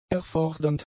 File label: "erfordernd", German; adjectives